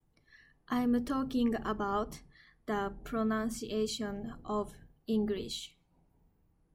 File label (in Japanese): カタカナ英語の発音